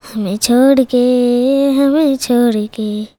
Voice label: CHILD VX, Indian Traditions

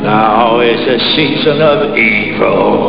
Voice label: ghost speech

